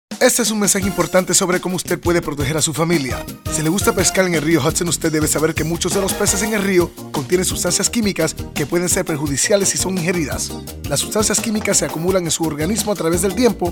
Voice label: spanish; hudson river